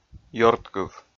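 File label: "ёрдкыв", Komi, male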